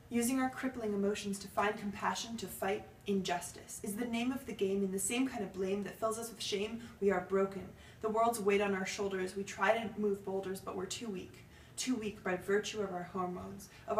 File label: recitation